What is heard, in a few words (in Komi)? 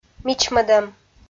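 "мичмӧдӧм", Komi, female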